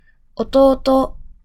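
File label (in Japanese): "弟" Japanese, male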